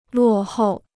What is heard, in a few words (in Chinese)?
"落后", Chinese